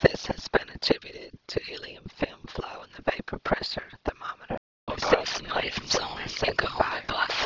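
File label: mixture 2 speakers female whisper; Simulated whisper; Timit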